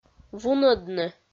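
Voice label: "вунӧдны", Komi, female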